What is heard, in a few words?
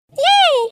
"yeay", hi pitch